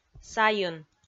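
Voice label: "сайын", Komi, female; kpv; audio dict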